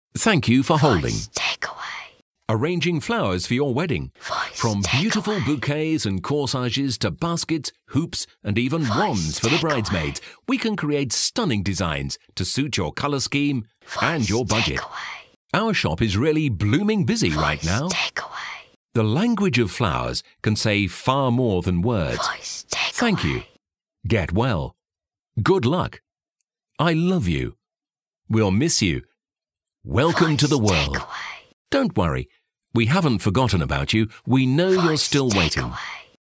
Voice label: Rich Male; Florists

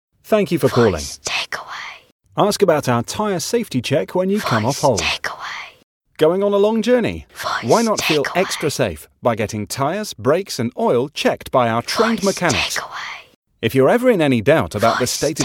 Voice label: Car Showrooms Smooth Male

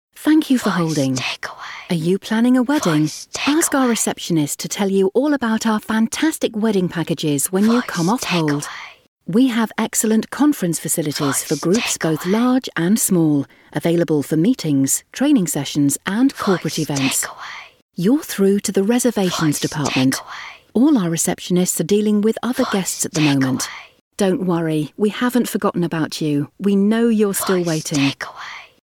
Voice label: Hotels Smooth Female

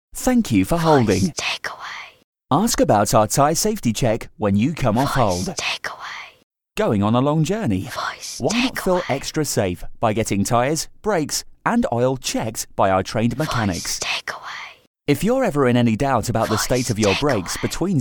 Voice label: Car Showrooms Fresh Male

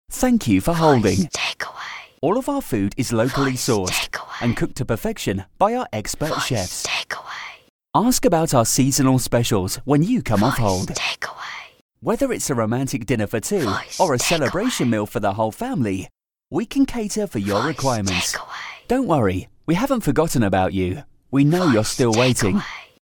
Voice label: Restaurants Fresh Male

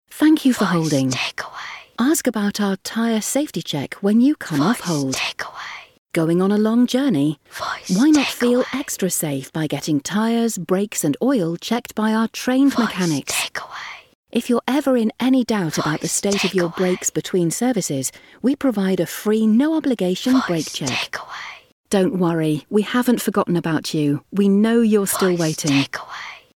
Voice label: Car Showrooms Smooth Female

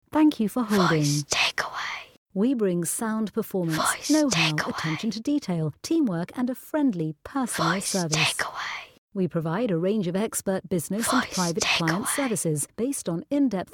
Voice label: Solicitors Rich Female

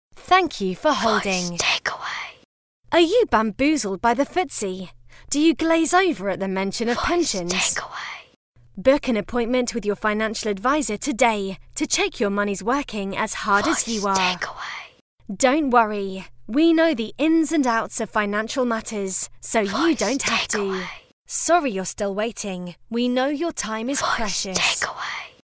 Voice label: Financial Advisors Fresh Female

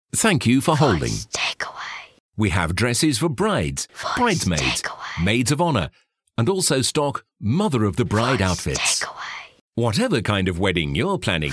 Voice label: Rich Male

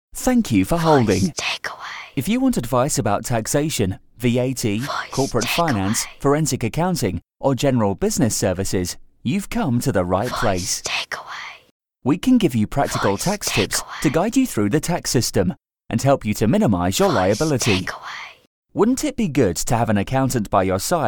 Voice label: Accountants Fresh Male

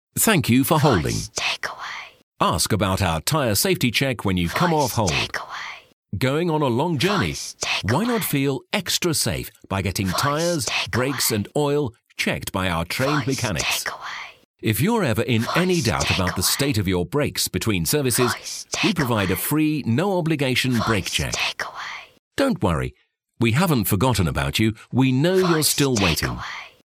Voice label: Car Showrooms Rich Male

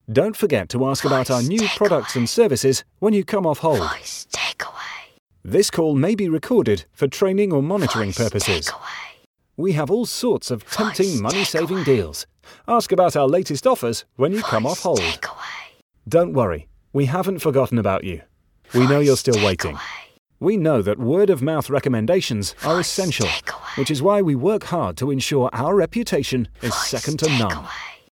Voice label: Start Ups Smooth Male

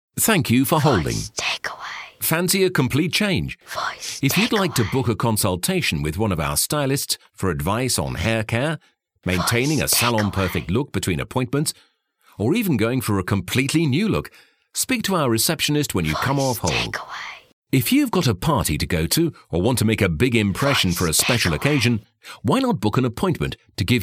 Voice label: Hairdressers Rich Male

